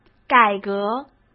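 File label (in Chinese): "改革", Mandarin Chinese; hsk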